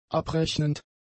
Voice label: "abrechnend", German; adjectives